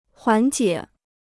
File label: "缓解", Chinese